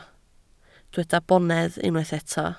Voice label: "bonedd", Welsh